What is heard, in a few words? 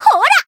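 Voice attack Japanese; bivette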